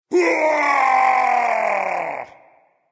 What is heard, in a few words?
battlecry, voice; Smite; ymir skin9